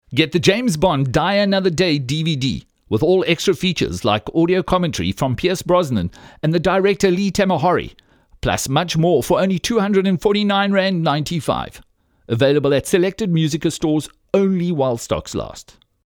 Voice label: hard sell